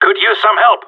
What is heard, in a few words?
need help, radio; GSG9